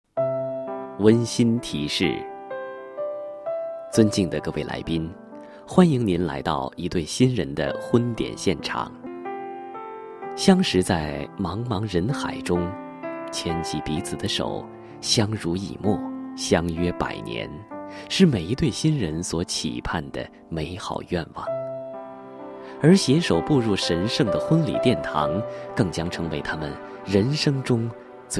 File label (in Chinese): 静场男声温馨提示